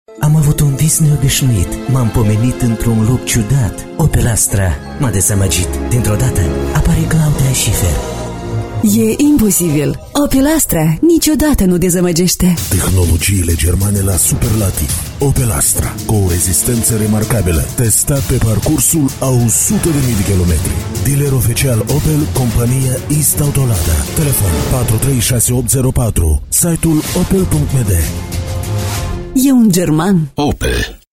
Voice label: Moldovyaca Erkek; moldovyaca seslendirme; erkek sesler

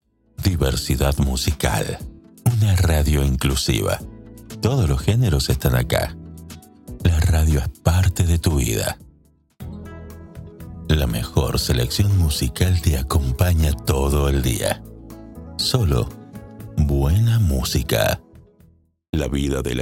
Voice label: Ispanyolca Erkek Arjantin; seslendirme

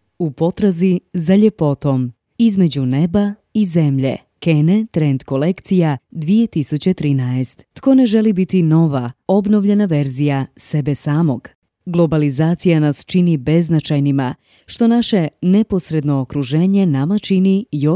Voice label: Hirvatca Kadin; seslendirme

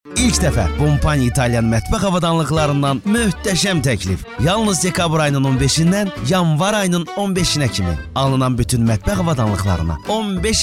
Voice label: Azerice Erkek, seslendirme

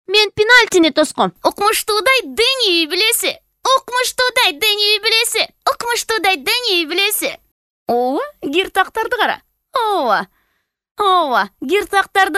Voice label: Kirgizca Kadin; seslendirme

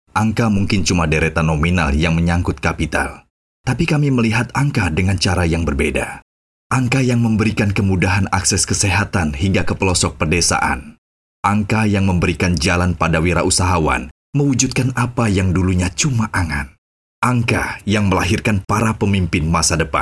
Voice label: Endonezce Erkek; seslendirme

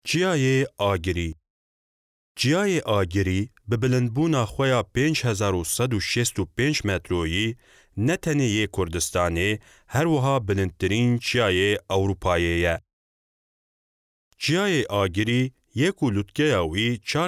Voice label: Kurtce Erkek Kurmanci; kurtce seslendirme; erkek sesler

